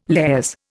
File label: "lares", Indian